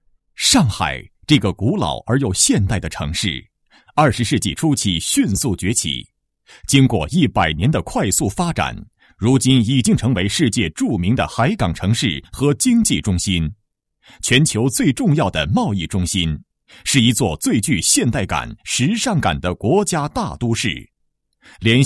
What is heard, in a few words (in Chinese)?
男国 宣传片 企业; 申龙客车